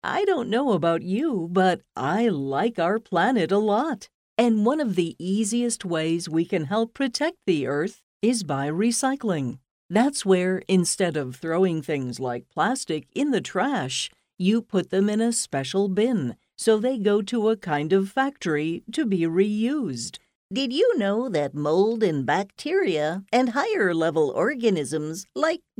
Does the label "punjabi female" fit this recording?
No